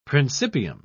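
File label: "principium", British English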